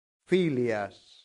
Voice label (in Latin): "filias", latin; santillana